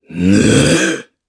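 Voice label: Vox Casting, Japanese; kingsraid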